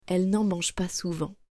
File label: "elle n'en mange pas souvent", French